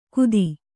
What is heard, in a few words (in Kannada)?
"ಗುದಿ", Kannada